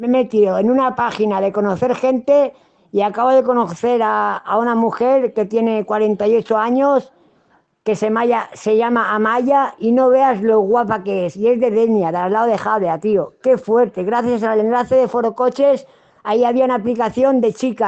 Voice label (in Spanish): publicidad; maduras forocoches